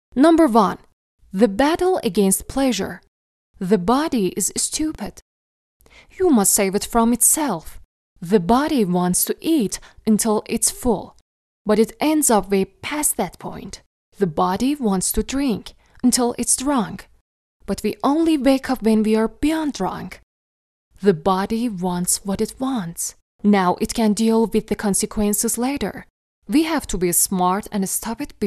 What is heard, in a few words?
narrator